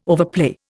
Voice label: "overplay", Indian